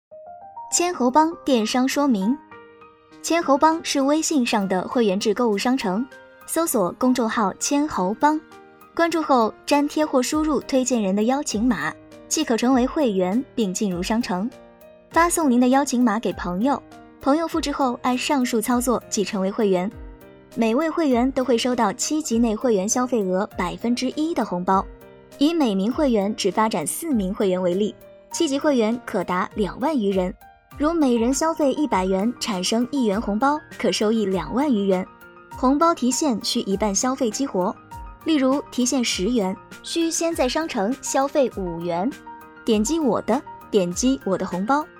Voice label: 电商说明 带BGM; 千侯帮

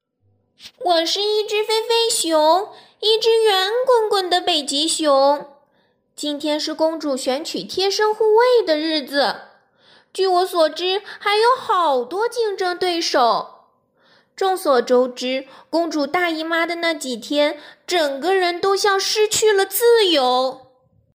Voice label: 干音无任何特效

